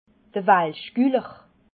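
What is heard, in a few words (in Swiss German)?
"walschguller", Alsatian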